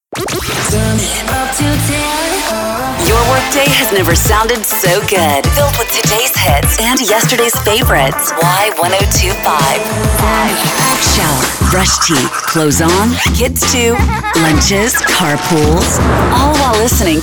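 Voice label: radio imaging women soft adult contemporary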